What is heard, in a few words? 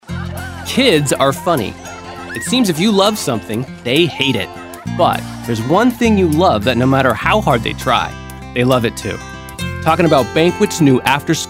Commercial